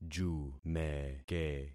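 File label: "jumeke", concatenated syllables; Affixing experiment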